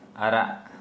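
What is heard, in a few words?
Santali male red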